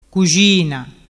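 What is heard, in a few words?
"cuxina", Vernazzese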